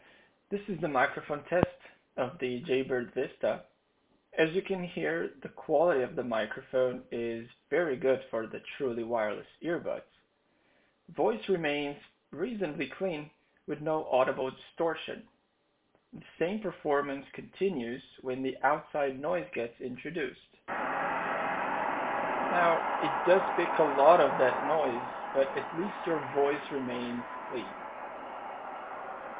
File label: Jaybird Vista microphone test